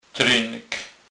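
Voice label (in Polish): "trynk", kurpie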